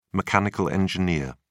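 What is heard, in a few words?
"mechanical engineer", British English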